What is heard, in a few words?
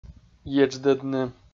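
"едждӧдны", Komi-Zyrian, male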